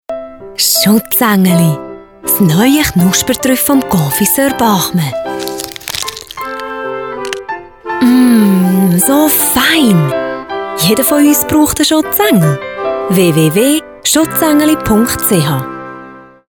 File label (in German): Radiospot; Schutzengeli